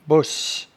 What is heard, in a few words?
"bosse", patois jura